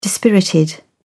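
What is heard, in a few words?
"dispirited", English, British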